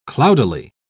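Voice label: "cloudily", British English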